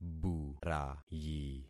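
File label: "burayi", concatenated syllables; Affixing experiment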